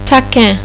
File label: "playful", "taquin", French; Personality